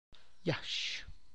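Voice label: avocado, Tlacochahuaya Zapotec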